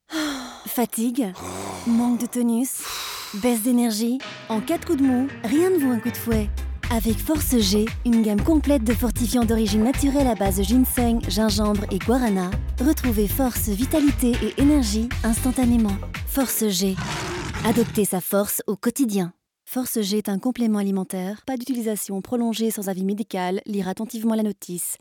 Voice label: French; FORCE G

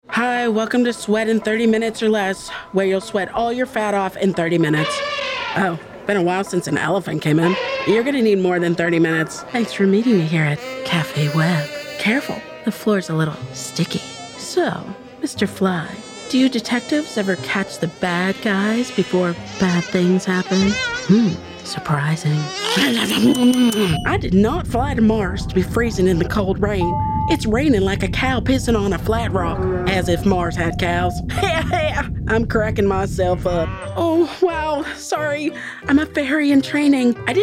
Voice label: Animation

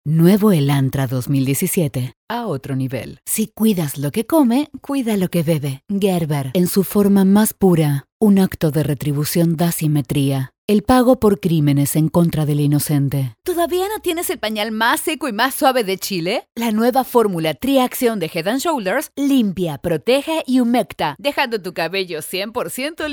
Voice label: Dry, ARGENTINA

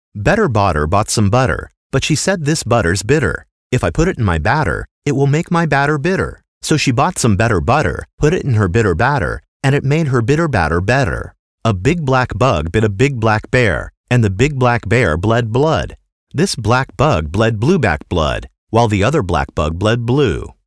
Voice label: Tongue Twisters

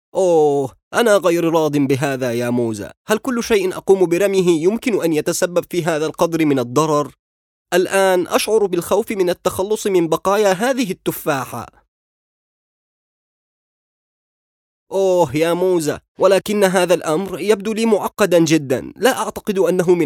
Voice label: FOR CHILDEREN

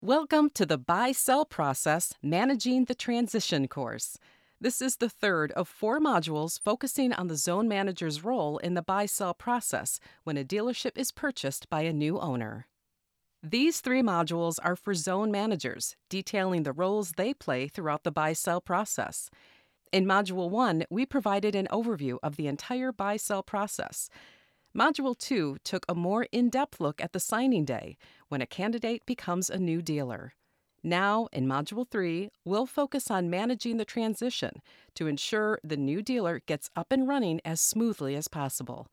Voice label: E-learning

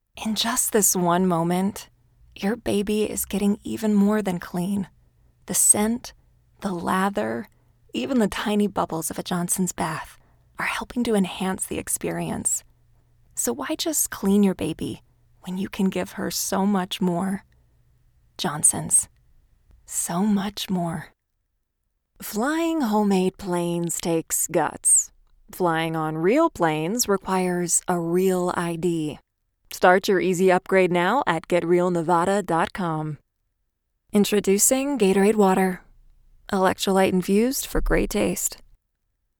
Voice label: Dry

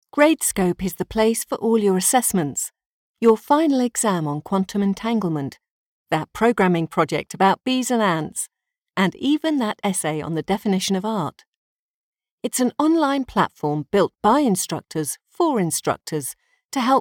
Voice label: Warm Explainer

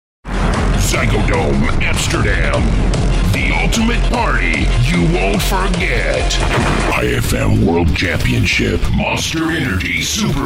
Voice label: voice overs

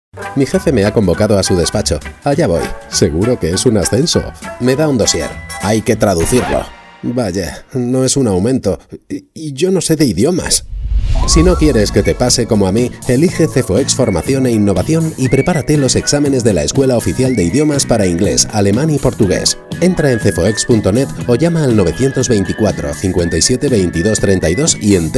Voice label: Radio Commercial Spanish

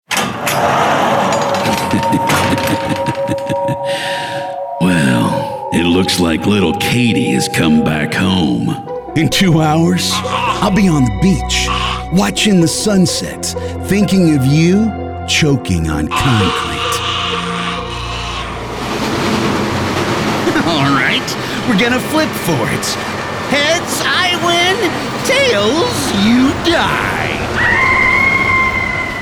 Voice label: Bad Guy

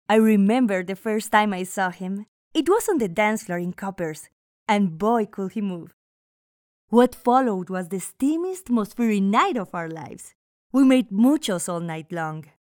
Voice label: Hispanic; Mr Tayto

